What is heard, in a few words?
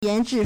"yan2zhi4", Chinese